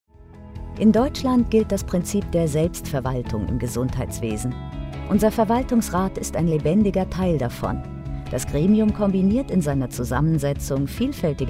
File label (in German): imagefilm; medizinischer dienst bw